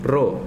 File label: "boca", Zapoteco